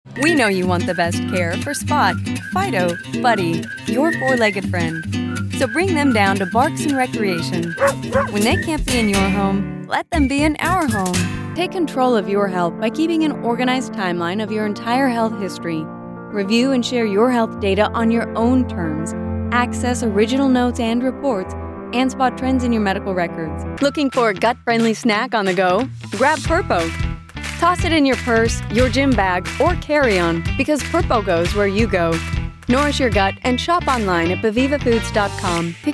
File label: 30s40s Female Commercial